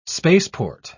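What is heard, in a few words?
"spaceport", English, British English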